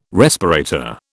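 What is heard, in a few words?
"respirator", British English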